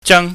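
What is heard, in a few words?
"jang", Cantonese, high falling tone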